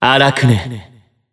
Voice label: System Voice; BBCF; Jin